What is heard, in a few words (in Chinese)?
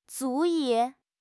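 "足以", Chinese; HSK6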